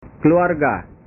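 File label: "keluarga", Indonesian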